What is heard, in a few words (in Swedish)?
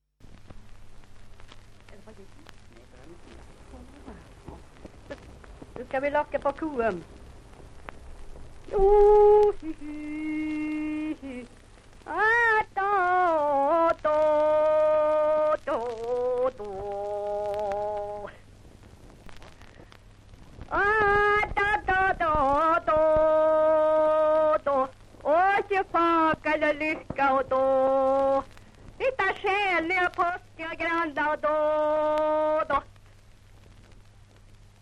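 Hede Härjedalen, dialekter; Folkminnen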